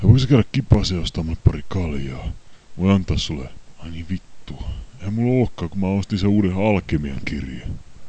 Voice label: library voice